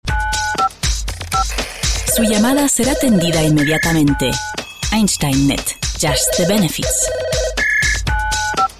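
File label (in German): Spanish, Werbung, Freundlich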